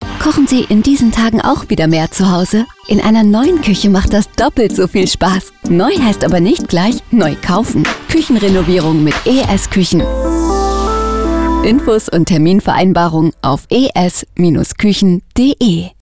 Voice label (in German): Werbespot; ES KUECHEN